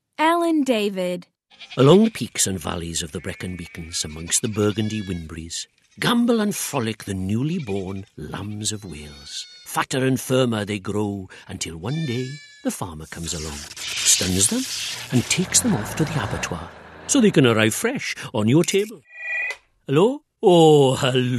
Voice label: Narrative Compilation